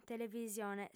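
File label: "televisione", Sardinian, female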